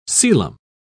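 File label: "coelom", English, British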